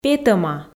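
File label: "петӧма", Komi, female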